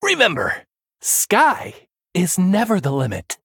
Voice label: Male Human Flavor